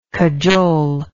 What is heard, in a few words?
"cajolingly", English